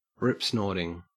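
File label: "ripsnorting", Australian English; pronunciations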